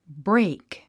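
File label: "brake", English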